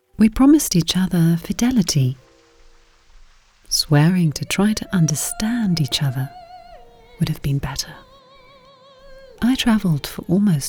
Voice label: british english female Documentary; Saudade

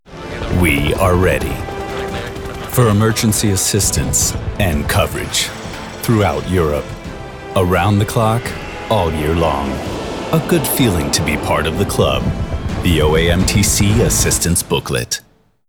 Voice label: american english male Car Insurance

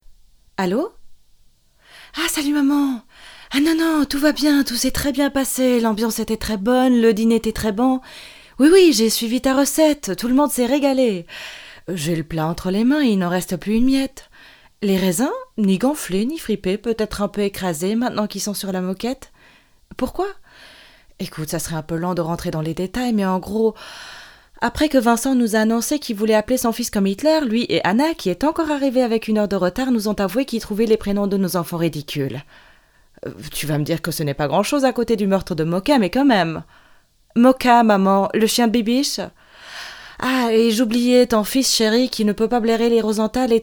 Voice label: femme Rolle

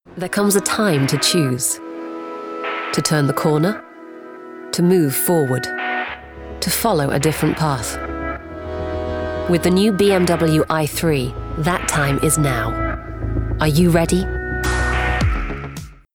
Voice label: british english female, Spot; BMW i3

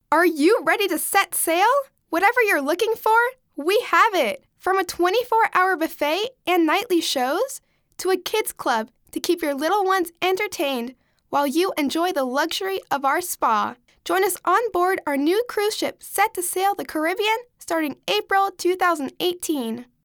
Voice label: american english female Sale Spot

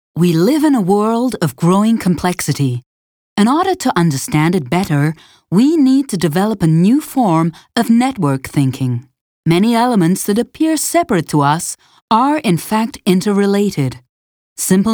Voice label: american english female US

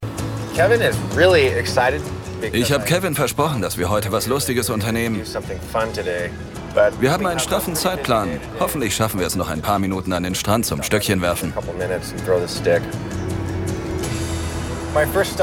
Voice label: Voice Over, maenner; Dr.BuckeyeBottoms

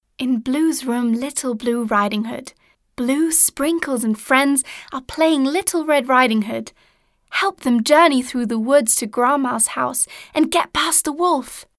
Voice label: british english female Spot; Blues Room

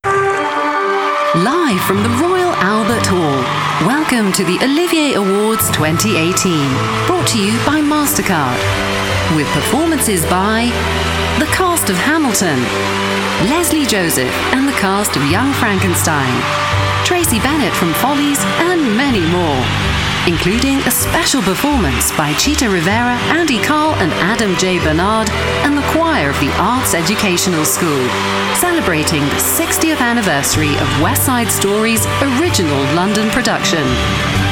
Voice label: british english female; OLIVIER AWARDS

